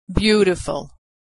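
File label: "beautiful", American English; vowel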